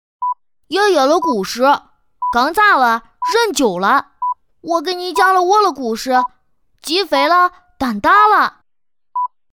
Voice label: 河南方言 女 河南话仿童; 方言配音

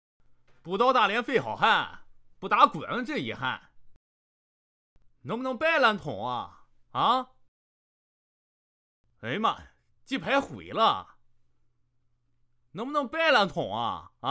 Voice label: 大连方言 男 方言 棋牌; 方言配音

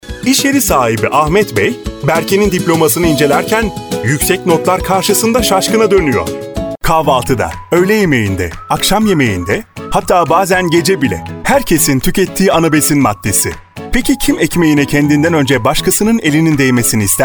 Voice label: 土耳其 Turkish

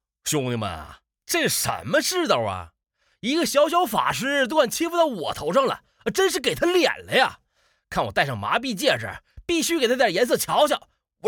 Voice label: 东北方言 男 信息流 东北味; 方言配音

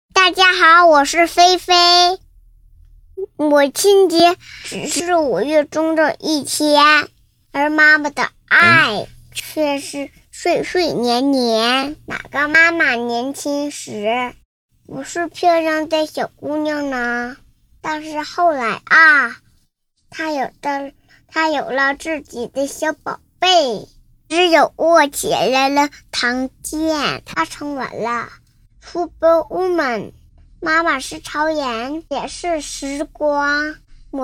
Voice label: 女真童; 真童配音; 母亲节音频

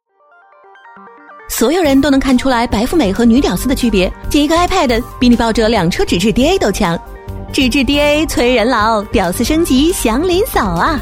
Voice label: 女国 诙谐幽默 诙谐风格; 平板精英

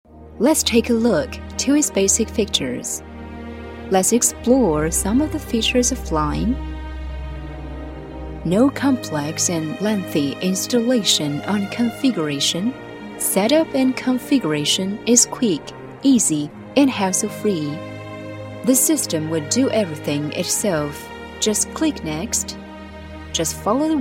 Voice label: 女英 专题 speech; 专题video speech

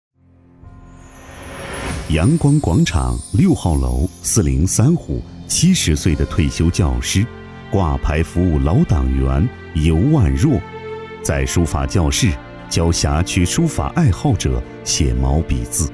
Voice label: 男 国 大音; 党员模范试样

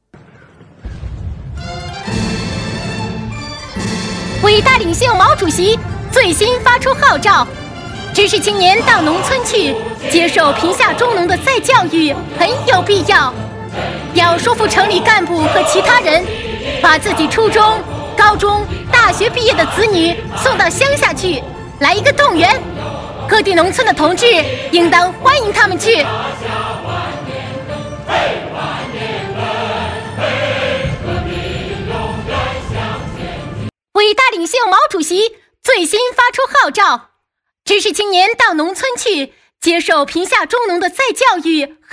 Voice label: 女 年代感广播; 女声广播提示; 年代感广播-毛主席

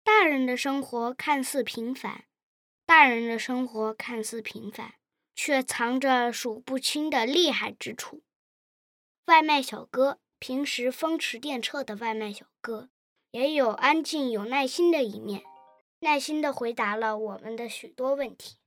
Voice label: 男真童 大人的生活; 真童配音

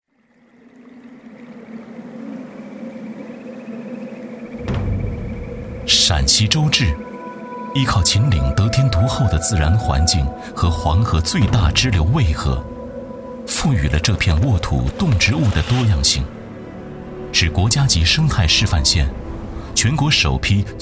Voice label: 男 农产 猕猴桃; 周至猕猴桃

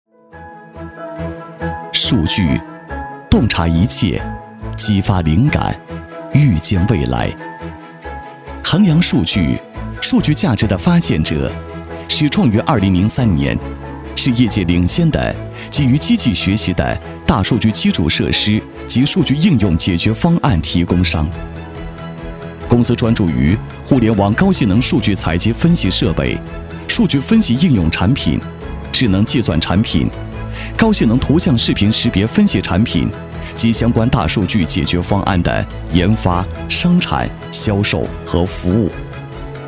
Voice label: 男 大音 宣传科技; 恒扬数据

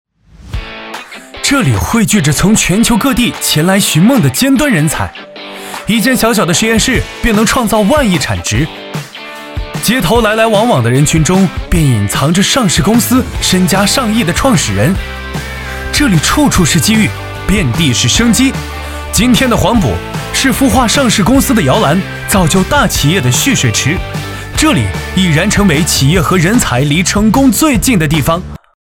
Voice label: 男国 活力城市; 广州黄埔区

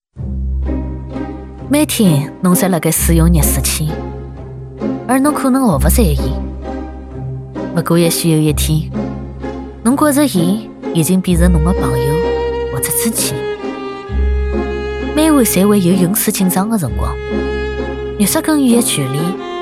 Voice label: 上海方言 女 沪语 上海话 王家卫风格女; 方言配音

